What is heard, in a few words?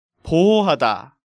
"Bohohada", Korean